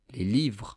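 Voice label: "les livres", French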